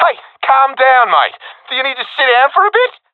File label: takingfire friendly, professional epic, agents; Source Model Packs; Counter Strike Model Pack; CS2